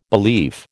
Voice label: "belief", American

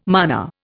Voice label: "mana", Indian

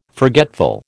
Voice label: "forgetful", American

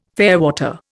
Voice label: "fairwater", Indian

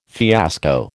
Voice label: "fiasco", American

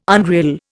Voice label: "unreal", Indian

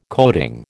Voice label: "coating", American

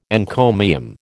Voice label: "encomium", American

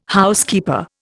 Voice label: "Housekeeper", Indian

